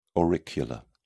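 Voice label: "auricular", British English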